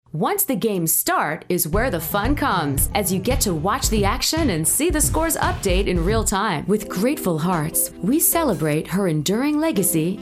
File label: NARRATION AND PRESENTATION